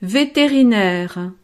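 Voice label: "veterinaire", French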